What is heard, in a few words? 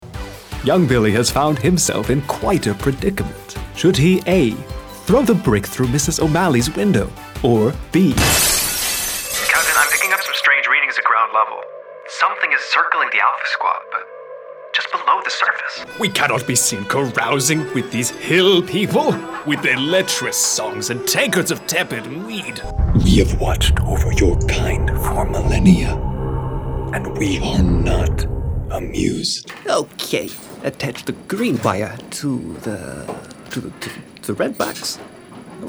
Animation, voiceover; Boston-based voice actor